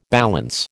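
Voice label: "balance", American English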